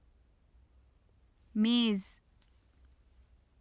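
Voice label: "mez", Urdu; میز; [meːz] (mēz); 机（女）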